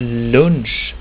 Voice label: "lunch", swedish

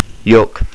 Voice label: beverage, estonian

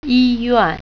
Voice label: "hospital", Mandarin

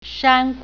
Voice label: "valley", Mandarin

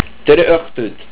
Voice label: Good evening, estonian

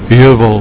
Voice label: "Heuwel", Afrikaans; "Hill"; spoken

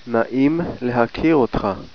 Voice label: NICE MEET, Hebrew

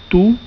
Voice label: you sing, Catalan

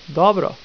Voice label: "Dobro", Slovenian

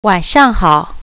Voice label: "good evening", Mandarin

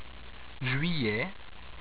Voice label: "Juillet", french

